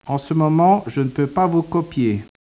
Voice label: speech, French